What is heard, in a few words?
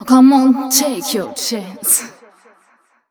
fem spo, Vocals